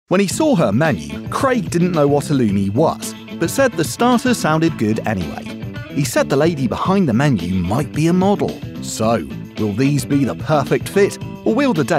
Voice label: Documentary